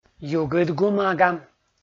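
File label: "югыд бумага", Komi, male; kpv audio dict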